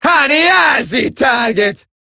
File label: Player Spotted, Female